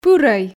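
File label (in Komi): "пырӧй", Komi, female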